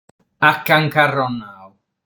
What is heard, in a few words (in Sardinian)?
"Acancarronau", sardu